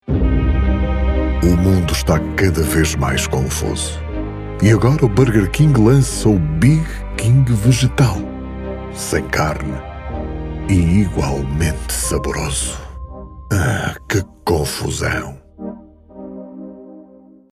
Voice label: portuguese commercial; Portugal; My Voice has been described as warm and deep for voiceover narrations

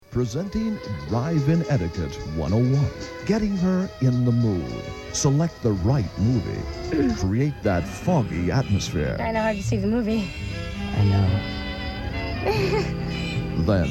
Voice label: english canadian animation

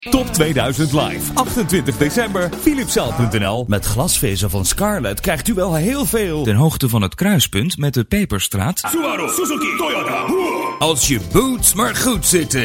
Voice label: dutch commercial; The Netherlands

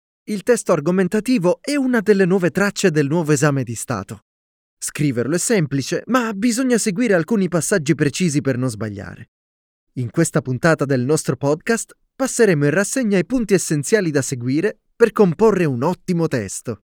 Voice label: Italian podcasts

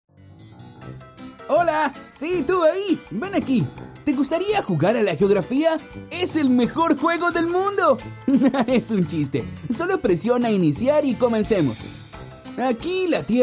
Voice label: Spanish Latin American animation

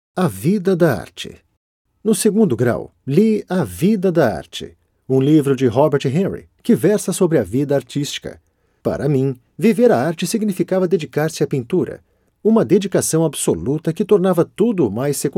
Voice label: portuguese brazilian narration

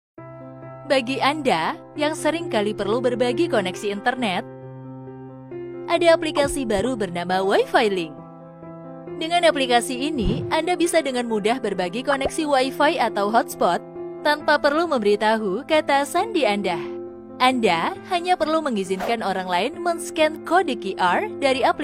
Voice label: indonesian e-learning

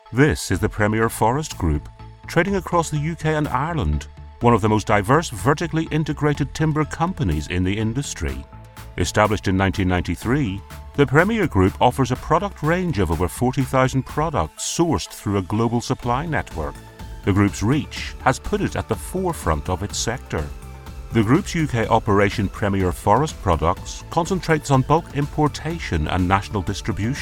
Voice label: english irish corporate videos

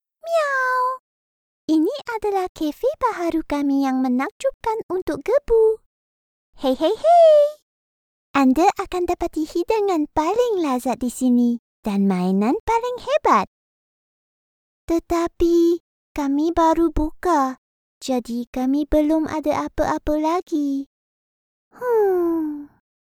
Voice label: malay animation

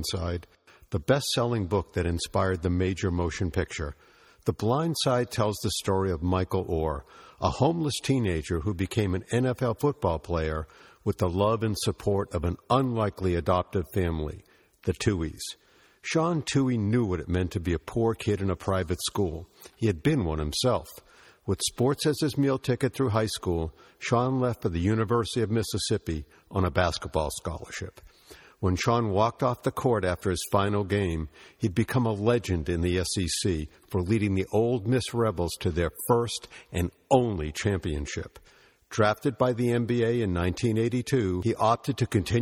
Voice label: english american

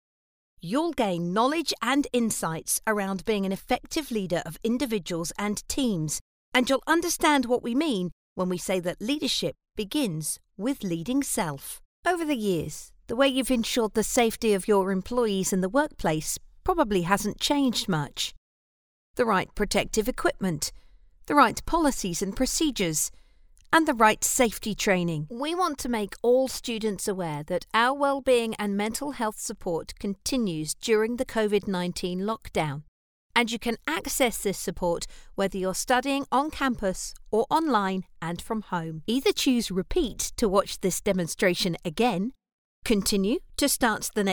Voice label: english british e-learning

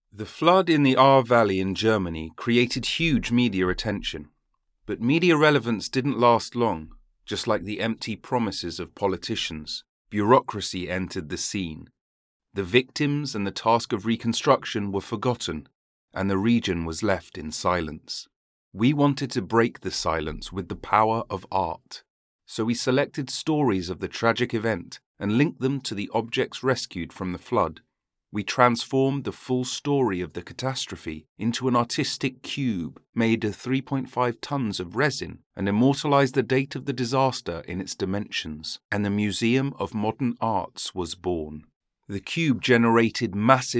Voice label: english british explainer videos

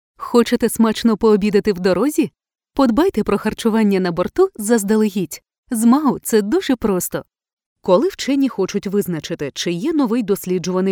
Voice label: ukrainian e-learning

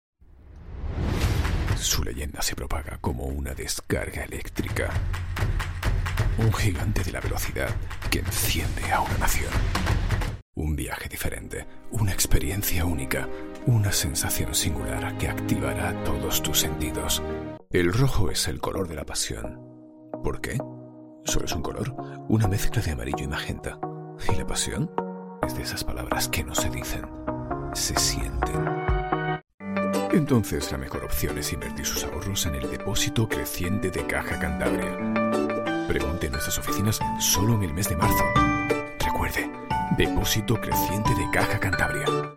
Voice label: spanish commercial; Español; España

